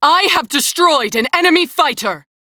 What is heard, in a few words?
Crew Gun Kill Fighter, Female; Dialog; en; Warframe; Railjack Crew; New Loka